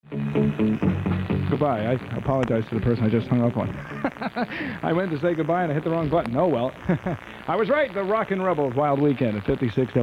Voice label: air check; whyn